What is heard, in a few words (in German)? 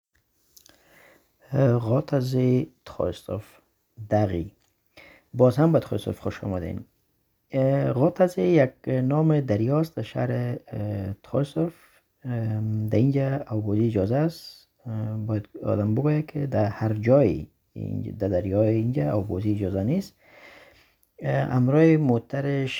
Afghanisch; Rotter See Troisdorf